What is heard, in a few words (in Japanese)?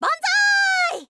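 "banzai", girl, Japanese; voice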